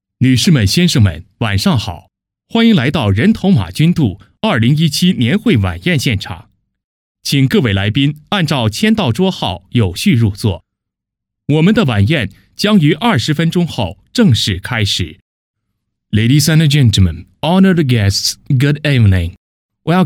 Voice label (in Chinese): 男英 外语 国内英语 中英文